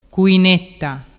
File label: "cuinetta", dialettu